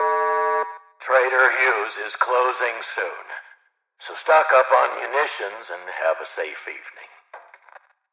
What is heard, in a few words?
trader announce closing